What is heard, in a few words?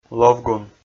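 "лӧвгун", Komi, male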